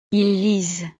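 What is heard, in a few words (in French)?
"ils lisent", French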